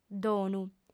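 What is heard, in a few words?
"domu", Sardinian, female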